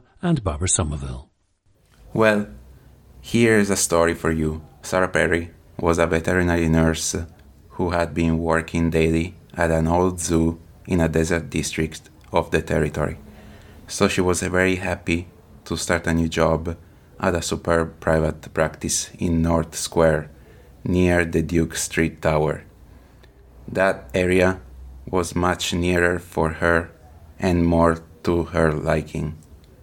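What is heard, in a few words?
italy, International Dialects of English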